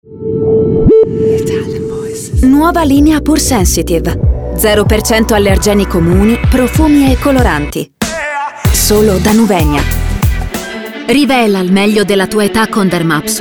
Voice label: ITALIAN VOICES Beautycare Fashion, female voices

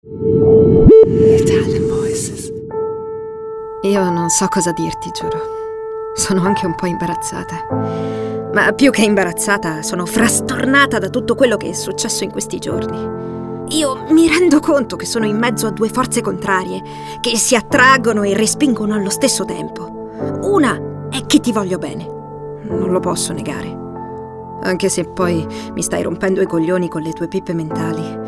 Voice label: ITALIAN VOICES Intimate Monologue, female voices

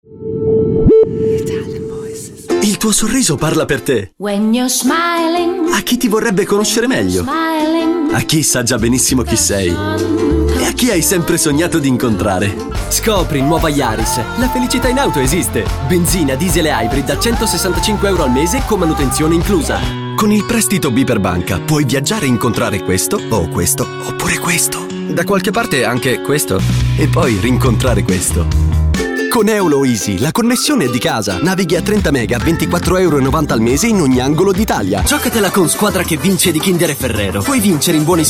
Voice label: Italian voices, Fresh Smart, male voices

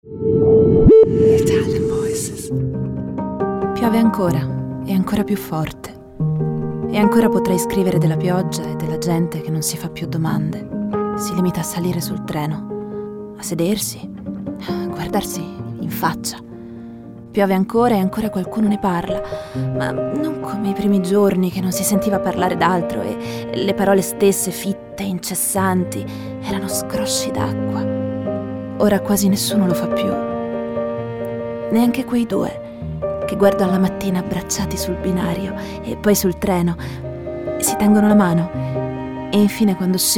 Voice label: ITALIAN VOICES, female voices; Naked Reel

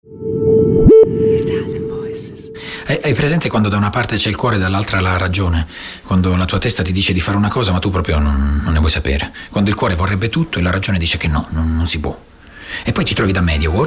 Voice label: ITALIAN VOICES Commercials, male voices

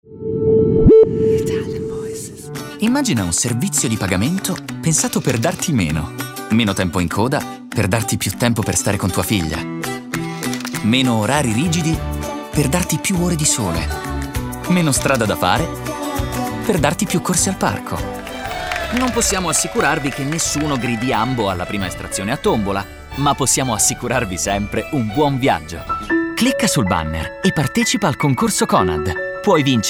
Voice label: ITALIAN VOICES Friendly Reassuring, male voices

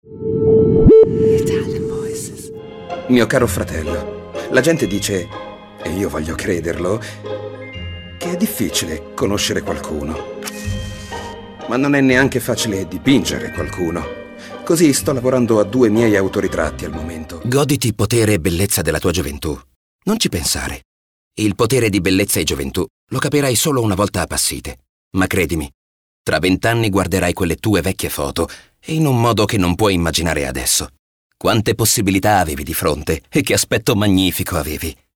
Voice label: ITALIAN VOICES Natural Straight, male voices

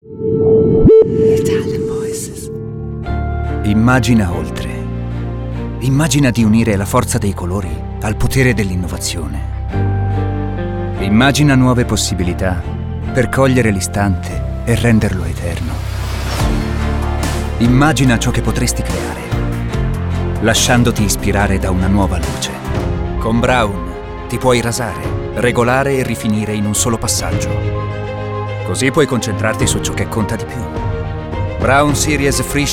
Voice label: ITALIAN VOICES Cool Intense, male voices

